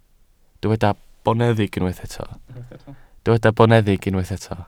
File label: "boneddig", welsh